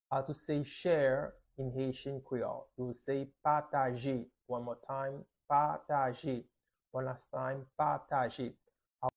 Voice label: "Pataje" Haitian Creole pronunciation by a native Haitian Creole teacher; How to say Share in Haitian Creole